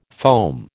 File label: "foam", American